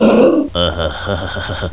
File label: knight hurt; butthead